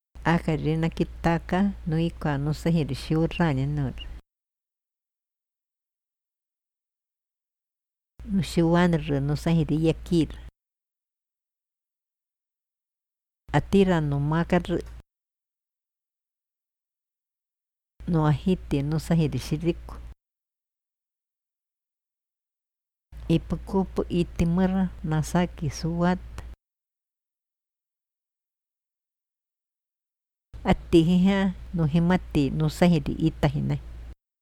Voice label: iquito verbos